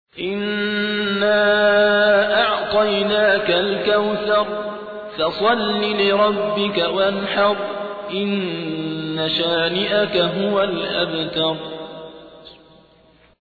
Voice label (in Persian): ترتیل سوره کوثر; صابر عبدالحکم